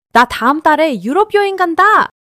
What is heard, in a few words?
excitement